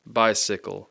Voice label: "bicycle", English; I (short sound); Speech Pro